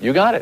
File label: you got it, announcer